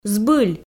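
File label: "збыль", Komi, female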